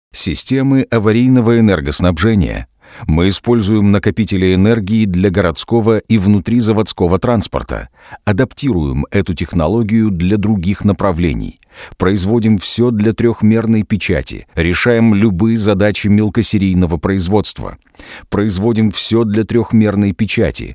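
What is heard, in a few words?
russian male e-learning; voice demos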